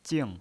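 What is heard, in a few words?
"jing", Mandarin Chinese, fourth tone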